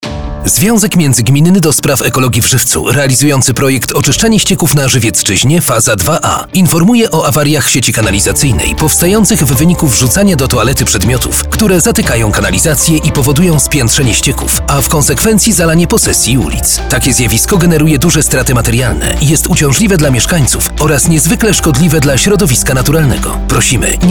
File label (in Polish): spot radiowy